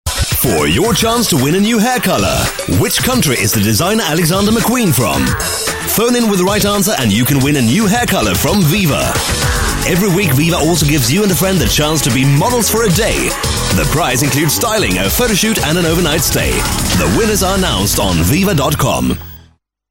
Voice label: Swedish English, Male; VIVA